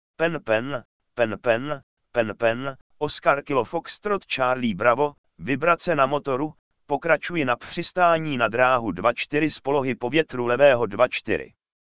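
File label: "pen pen pen pe", Czech; Azure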